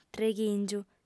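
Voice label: "treghìngiu", lingua sarda, female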